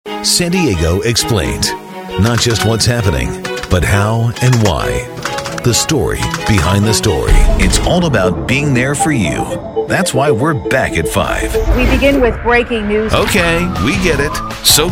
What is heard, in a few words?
tv affiliate image